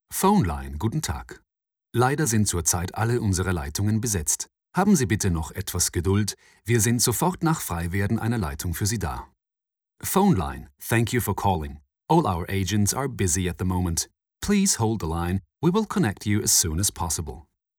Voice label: Phoneline

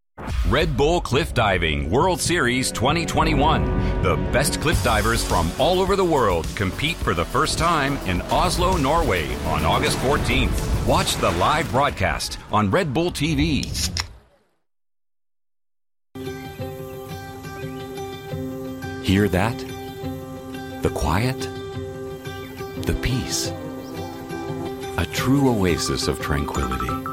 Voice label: Voiceover

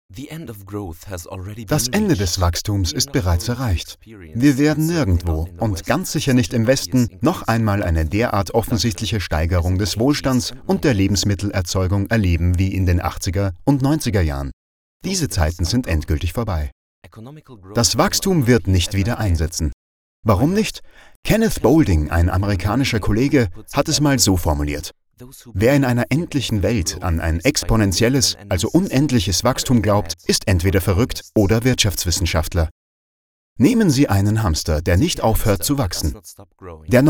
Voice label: Voice Over

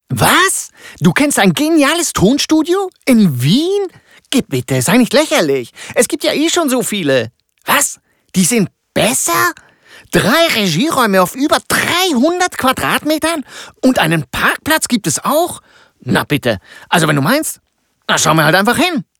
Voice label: Voice Acting

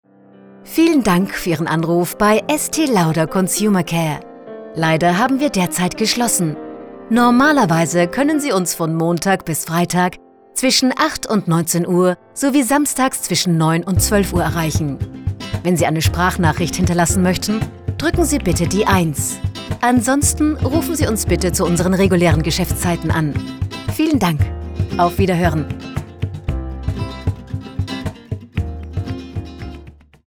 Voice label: IVR Tel Message DE

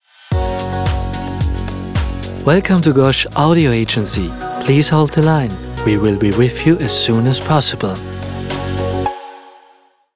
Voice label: Begrussung ENG

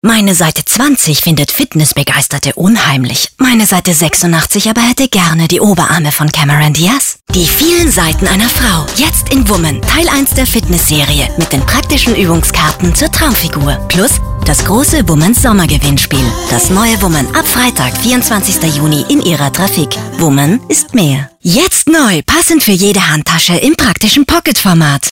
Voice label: Woman

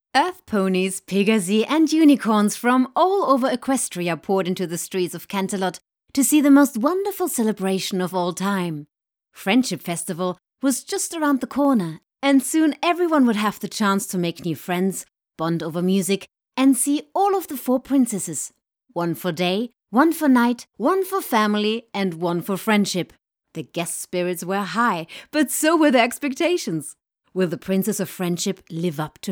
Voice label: Audiobook ENGL

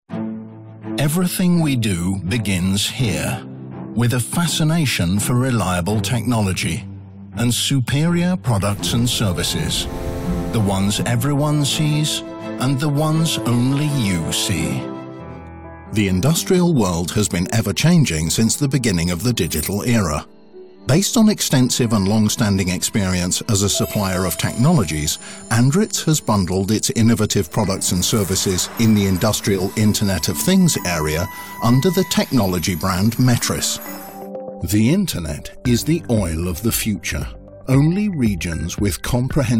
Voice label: Voice Over

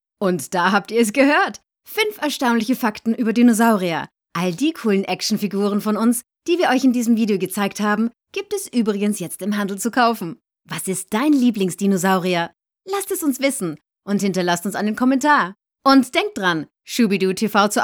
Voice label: online ad upbeat DE

